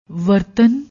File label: "wartan", Punjabi; ਵਰਤਣ